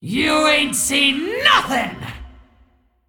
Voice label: Female Dragon Attack